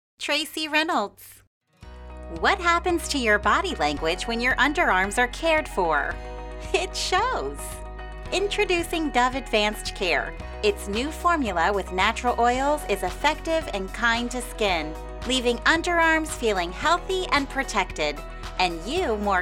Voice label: Commercial; Commercial Demo